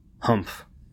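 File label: "humph", US English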